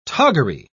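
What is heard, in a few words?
"toggery", British English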